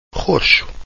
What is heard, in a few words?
"roxo", Portuguese; poor quality